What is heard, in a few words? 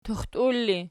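"tuxtur" ergative, Archi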